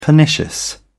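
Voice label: "pernicious", British English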